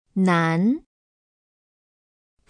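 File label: "nan", Chinese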